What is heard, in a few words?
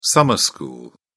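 "summer school", British English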